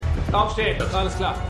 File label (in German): Polizist, Stimmproben; LO SVU